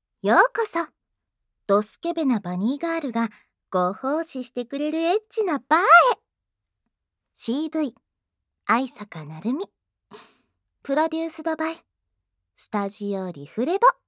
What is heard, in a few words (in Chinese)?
标题 朗读